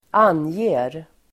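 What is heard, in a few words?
"anger", Swedish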